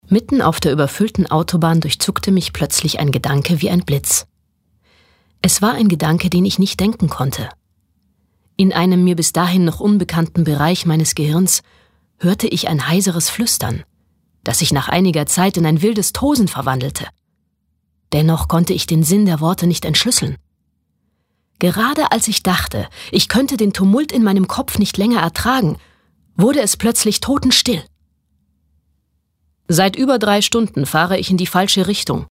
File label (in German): Nachricht Freundlich